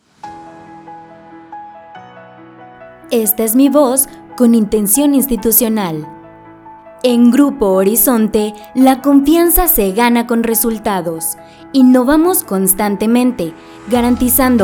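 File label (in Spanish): Institucional Musicalizado, locutora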